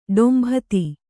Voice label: "ಡೊಂಬಿತಿ", Kannada

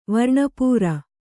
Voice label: "ವರ್ಣಪೂರ", Kannada

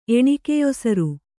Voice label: "ಎಣಿಕೆಯೊಸರು", Kannada

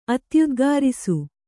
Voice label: "ಅತ್ಯುದ್ಗಾರಿಸು", Kannada

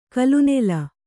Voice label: "ಕಲುನೆಲ", Kannada

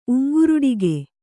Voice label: "ಉಂಗ್ರುಡಿಕೆ", kannada

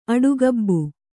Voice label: "ಆಡುಗಬ್ಬ", Kannada

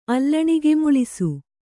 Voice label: "ಅಲ್ಲಣಿಗೆಮುಳಿಸು", Kannada

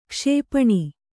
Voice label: "ಕ್ಷಿಪಣಿ", Kannada

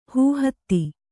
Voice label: "ಹೂಹತ್ತಿ", Kannada

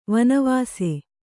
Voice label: "ವನವಸಿ", Kannada

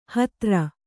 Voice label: "ಹತ್ರ", Kannada; hatra; = ಹತ್ತಿರ1

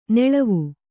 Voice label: "ನೆಳವು", Kannada

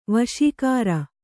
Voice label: "ವಾಸಾಗಾರ", Kannada

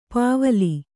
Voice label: "ಪೂವಿಲ್", Kannada

